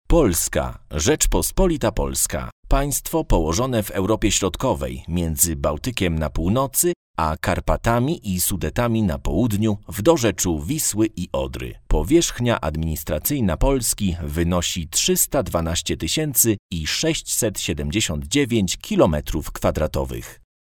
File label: polish voiceover locutor polaco